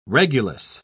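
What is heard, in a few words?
"regulus", British English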